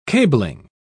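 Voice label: "cabling", British English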